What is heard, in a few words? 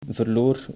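"verloor", Dutch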